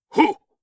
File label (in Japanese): はっ！, 戦闘ボイス（中年・低音）